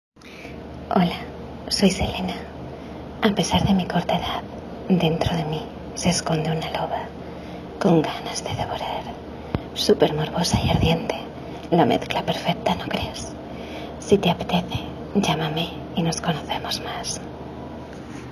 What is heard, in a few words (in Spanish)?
Locución